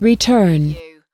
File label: "return", US female